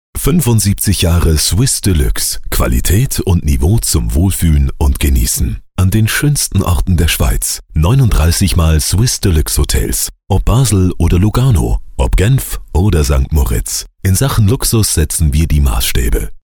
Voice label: coldvoice; swissdeluxe